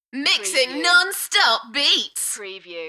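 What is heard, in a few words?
British female Mixing nonstop beats Enthusiastic; beanstalk audio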